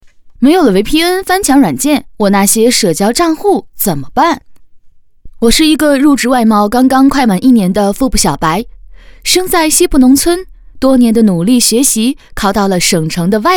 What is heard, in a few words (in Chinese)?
女, 小白自述; 飞碟说; 翻墙软件